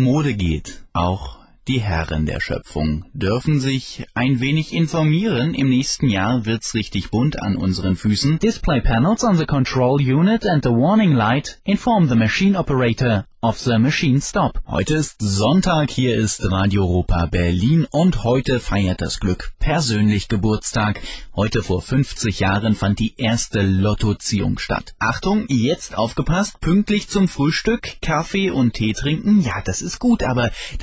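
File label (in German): Sprecher, Männer; Radio-Mix; Magdeburg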